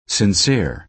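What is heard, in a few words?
"sincere", American English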